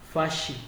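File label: "fashi", Nigerian Pidgin, male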